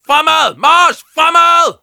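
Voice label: Danish positive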